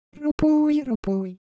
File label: Korean, Ideo Phones; Motion